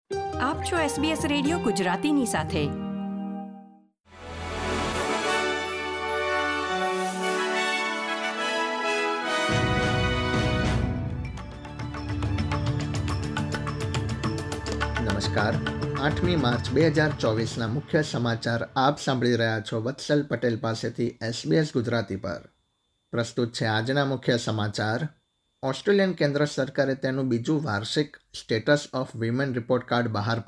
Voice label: gujarati news bulletin; sbs